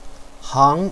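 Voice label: "hang2", Mandarin Chinese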